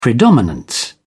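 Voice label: "predominance", English, British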